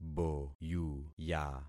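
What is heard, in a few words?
"boyuya", concatenated syllables; Affixing experiment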